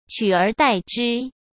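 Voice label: "取而代之", Mandarin Chinese; hsk; chinese-flashcards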